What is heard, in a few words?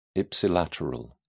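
"ipsilateral", English, British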